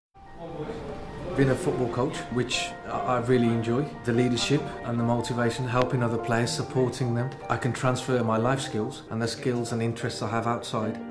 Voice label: male english west midlands accent healthcare promo; english northern accents; bmi healthcare